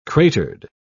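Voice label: "cratered", English, British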